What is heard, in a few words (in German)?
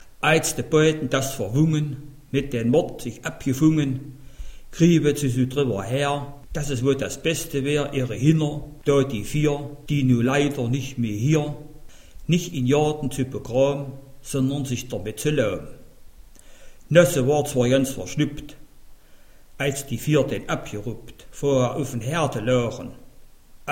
dialekte Mansfeld